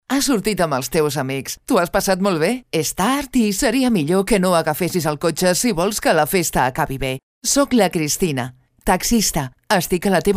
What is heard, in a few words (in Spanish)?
female, publi